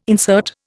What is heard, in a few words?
"insert", Indian